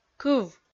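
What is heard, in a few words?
"кыв" Komi, female; kpv audio dict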